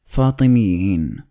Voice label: "Fatimid", Arabic